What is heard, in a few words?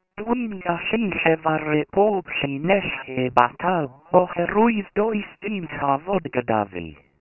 welsh; festival